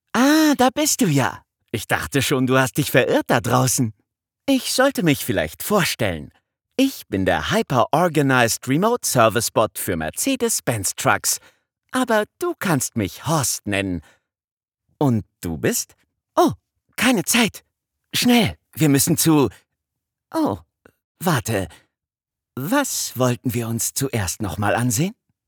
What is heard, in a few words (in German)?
Trickstimme freundlich unbeholfen